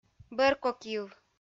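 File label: "бӧркокйыв", Komi, female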